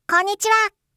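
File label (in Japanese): "konnichiha", Japanese; aisatsu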